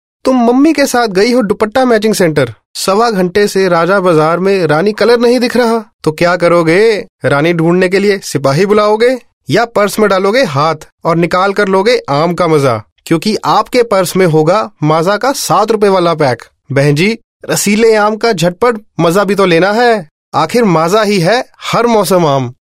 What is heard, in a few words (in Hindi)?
character Hindi, male voice; Maaza